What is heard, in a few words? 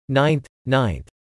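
"Ninth 9th", English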